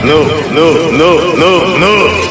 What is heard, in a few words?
heavy no